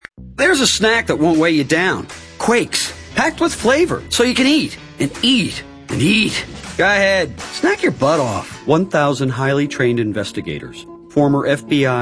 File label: male natural character